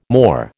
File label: "more", American